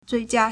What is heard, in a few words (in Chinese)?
"最佳 zuìjiā", Chinese; adjective; optimal